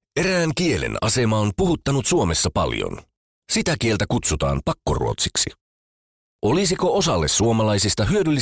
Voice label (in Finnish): ruotsi vapaaehtoiseksi radiomainos